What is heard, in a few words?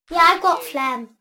Child says I've got phlegm; beanstalk audio